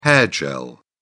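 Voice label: "hair gel", British English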